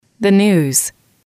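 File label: "news", English, female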